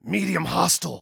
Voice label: Warn, Male